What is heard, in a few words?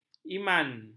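"iman", Malay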